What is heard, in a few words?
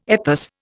"EPOS", Indian